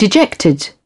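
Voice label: "dejected", British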